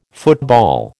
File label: "football", American